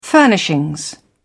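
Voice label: "furnishings", British English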